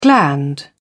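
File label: "gland", English, British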